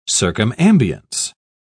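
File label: "circumambience", British English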